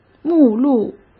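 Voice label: "目录", Mandarin Chinese; hsk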